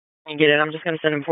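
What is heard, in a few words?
EMS Dispatch, p25; wake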